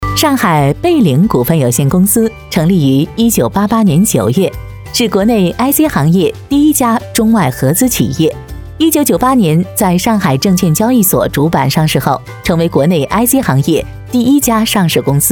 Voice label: 女 公司介绍

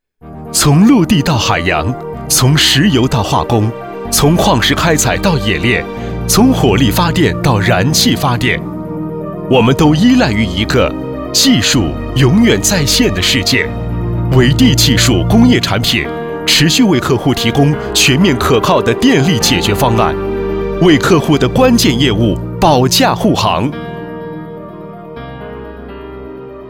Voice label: 男, 科技感, 专题

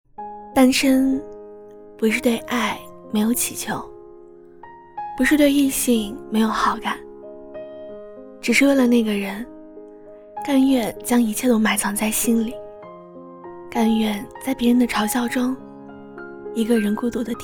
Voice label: 女 抖音独白 低沉

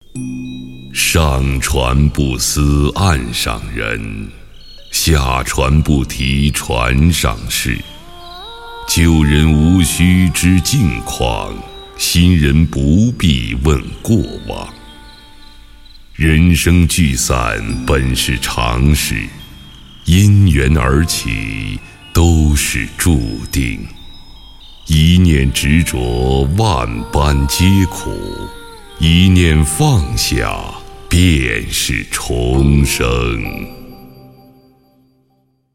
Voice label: 男 散文朗诵; 放下便是重生

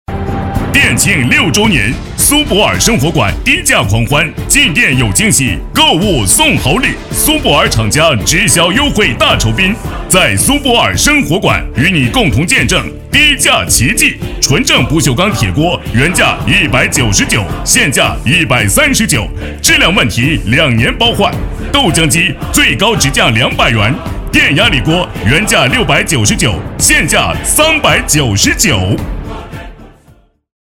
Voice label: 男 广告 家电广告配音

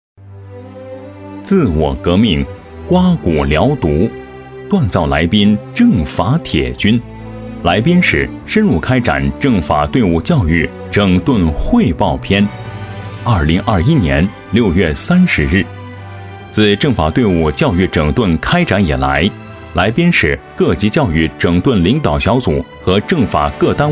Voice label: 男 宣传; 来宾市政法队伍教育整顿工作

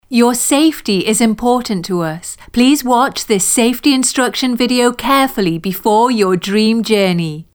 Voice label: 外籍英女 安全提示

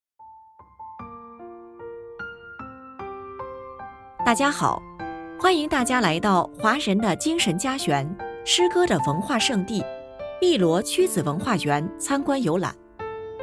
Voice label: 景区解说; 曲子祠

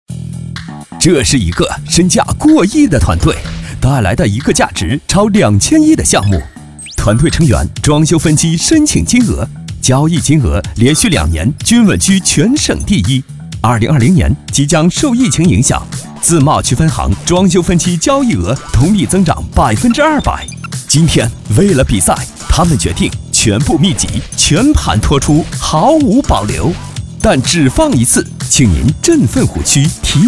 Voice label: 男 广告 激情时尚 装修

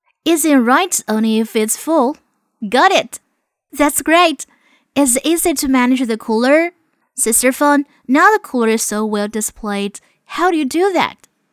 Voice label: 英女 英语角色 年轻角色

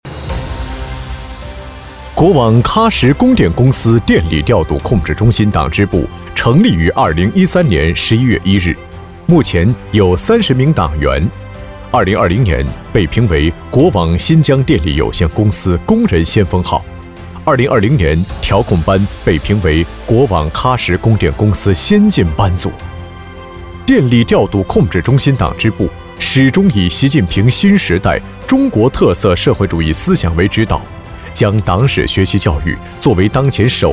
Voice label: 男 电力专题 电力调度; 国网电力专题

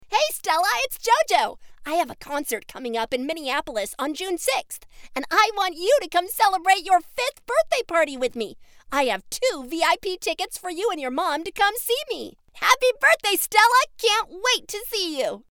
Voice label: 外籍英女 创意广告

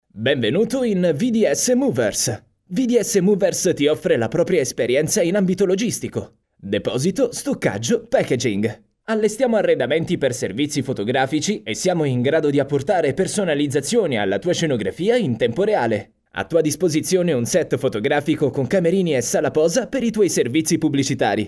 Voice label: 意大利语男-干音

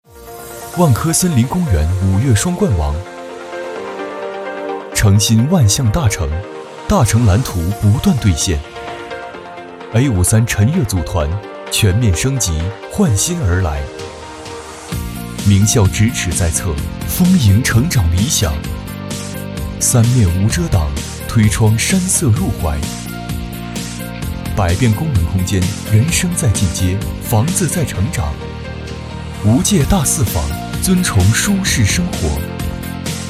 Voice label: 男 地产宣传; 万科

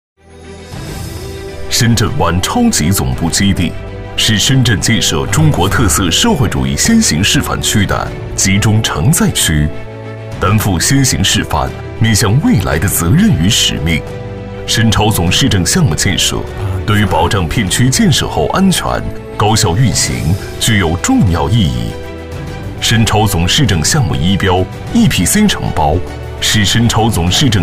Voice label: 男 专题 项目工程介绍; 深超总市政项目

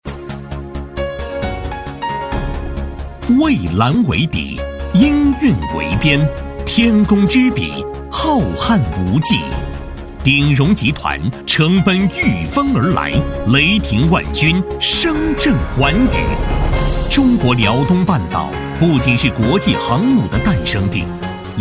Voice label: 男 宣传片 高昂大气; 鼎融集团

